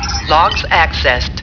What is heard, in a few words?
Computer voice saying "logs accesed"